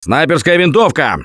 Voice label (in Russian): Take Sniper, left4dead2 russian, Survivor Voice; Gambler